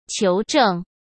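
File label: "求证", Mandarin Chinese; hsk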